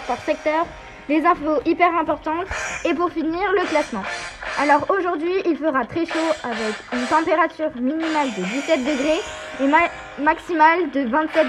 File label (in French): Spot radio